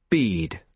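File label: "bead", British English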